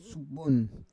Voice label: "sub buon", Roon